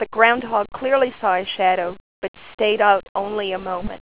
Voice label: woman